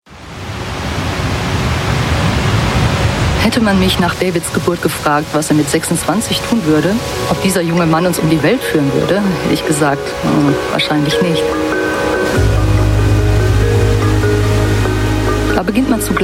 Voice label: German; Expedia

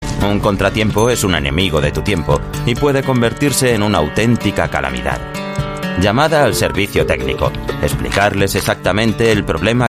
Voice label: Euro Spanish Male Corporate

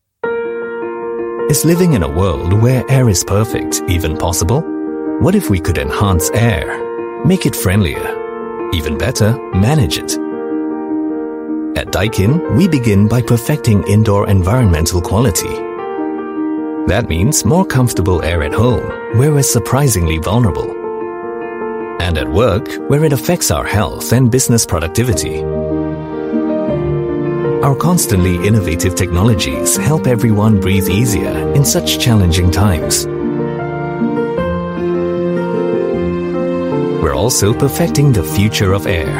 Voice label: Asian English; Daikin Perfecting The Air

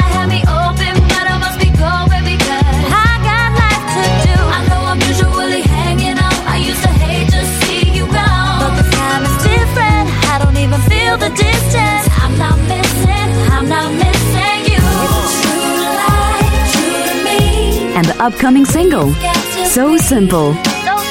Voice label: Asian English Radio

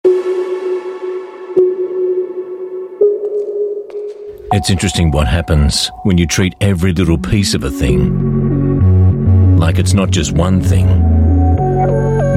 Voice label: Mid Atlantic English; Dell XPS Anthem

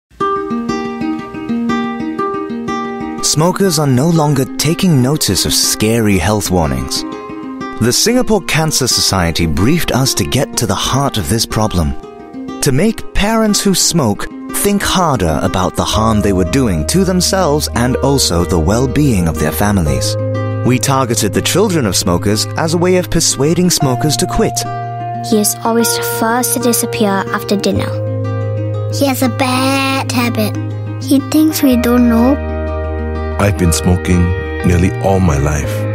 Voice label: Asian English; Heartbreak Stickers

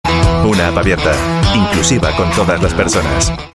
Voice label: European Spanish Commercial

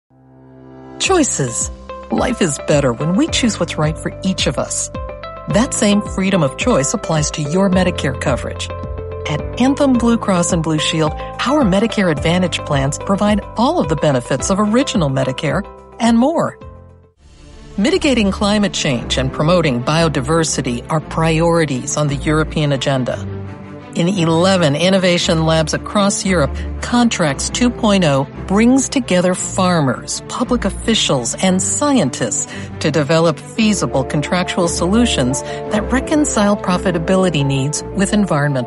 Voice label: US English Corporate

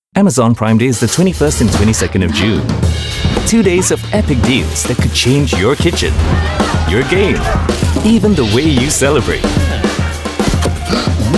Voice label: Asian English; Amazon Prime Day

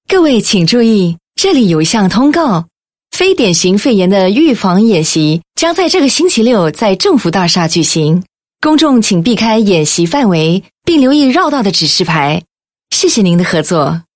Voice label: Mandarin Public Announcement

